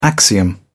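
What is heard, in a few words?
"axiom", British English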